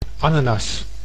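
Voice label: "ananas", Dutch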